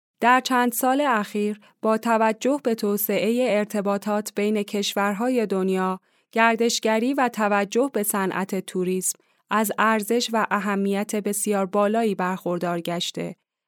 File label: female farsi voice over narrative